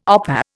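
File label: "opah", Indian English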